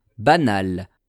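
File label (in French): "banal", French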